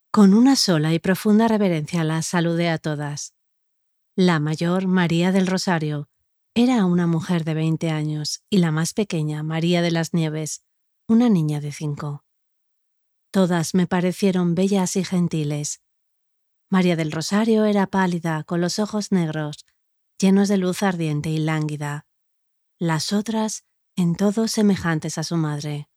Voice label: locutora spanish voiceover

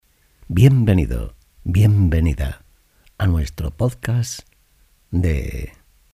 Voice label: podcast lenguaje inclusivo

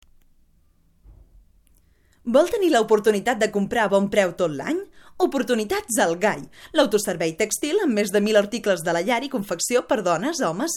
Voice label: locutora catala

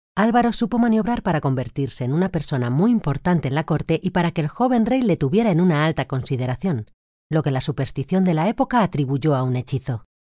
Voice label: locutora spanish voiceover

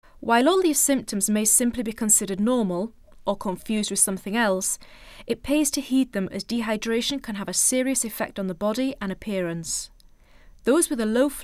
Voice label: locutora nativa inglesa

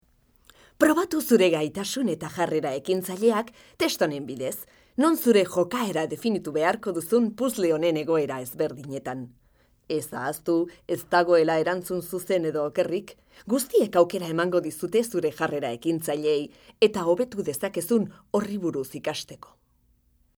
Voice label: locutora euskera

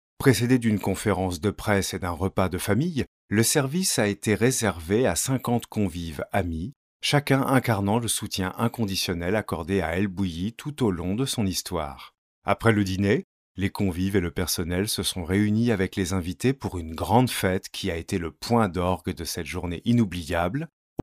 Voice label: french voiceover locutor frances

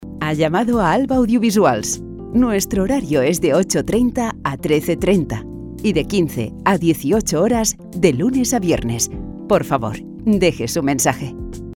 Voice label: locutora espanola spanish voiceover

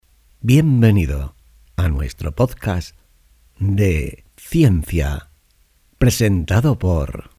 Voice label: podcast ciencia

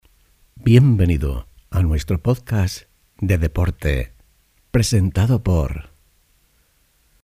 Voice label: podcast deporte

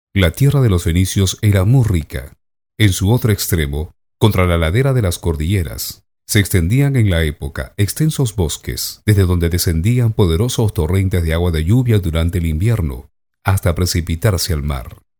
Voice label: locutor peru peruvian voiceover

